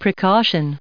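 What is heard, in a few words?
"precautions", us English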